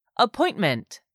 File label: "appointment", english; 3000 words